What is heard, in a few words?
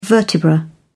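"vertebra", British English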